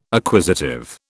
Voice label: "acquisitive", British